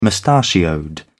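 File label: "mustachioed", British English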